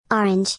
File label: "orange", female